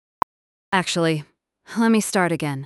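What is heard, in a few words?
speaker female slow low, start again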